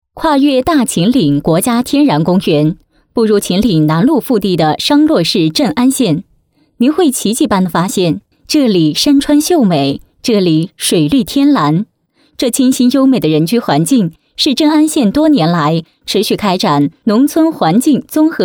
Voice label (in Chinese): 女, 专题, 磁性嗓音; 农村环境整治